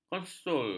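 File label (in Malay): "konsul", Malay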